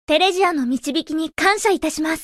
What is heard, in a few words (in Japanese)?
エモーション（丁寧な挨拶）「テレジアの導きに感謝致します。